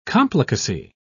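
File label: "complicacy", British English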